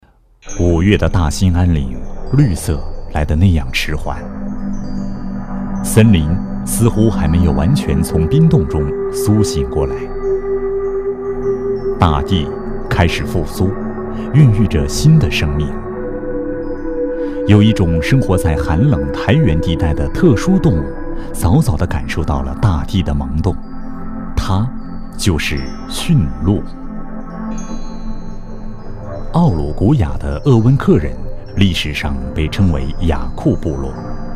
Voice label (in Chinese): 男 国 大音 人文纪录片; 群鹿铃铃